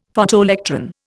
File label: "Photoelectron", Indian; Dictionary